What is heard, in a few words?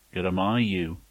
thanks, manx